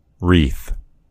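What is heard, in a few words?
"wreath", American English; gcompris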